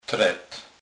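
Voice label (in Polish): "tret", Kurpie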